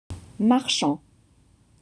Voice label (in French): "marchand", French